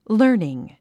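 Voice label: "learning", English word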